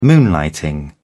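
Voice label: "moonlighting", British English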